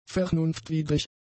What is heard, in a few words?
"vernunftwidrig", German; adjectives